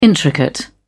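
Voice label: "intricate", English, uk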